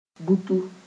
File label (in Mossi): "butu", moore